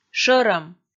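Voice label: "шӧрӧм", Komi, female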